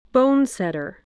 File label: "bonesetter", spelling